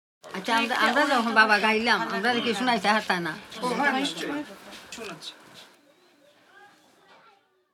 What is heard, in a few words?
Conversation